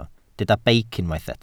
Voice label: "beic", Welsh